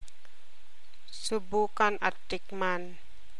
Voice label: tagalog